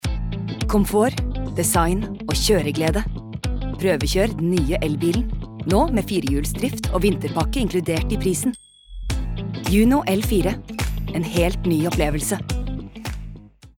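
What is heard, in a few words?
Norwegian advert; female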